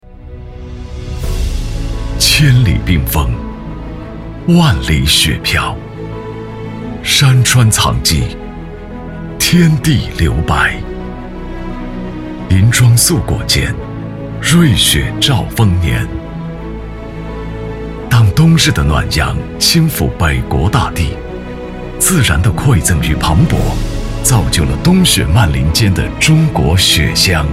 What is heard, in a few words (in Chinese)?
男 专题; 中国雪乡